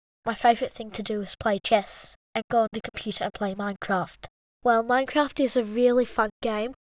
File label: clean speech reference